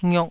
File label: "ngiok2", taiwanese syllables